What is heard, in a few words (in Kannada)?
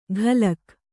"ಘಲಕ್", kannada